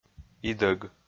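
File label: "идӧг", Komi, male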